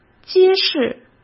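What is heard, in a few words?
"揭示" Mandarin Chinese; chinese flashcards; hsk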